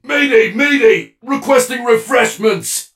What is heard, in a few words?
hurt vo; 瑟奇光年